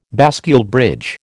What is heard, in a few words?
"bascule bridge", American